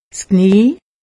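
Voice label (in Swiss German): "knie", Haut Rhin; medecine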